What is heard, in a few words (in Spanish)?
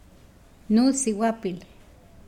Nawat palabras hija; pipil